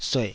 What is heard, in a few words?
"sueh3", taiwanese Syllables